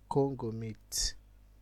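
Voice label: "congo meat", Nigerian Pidgin, male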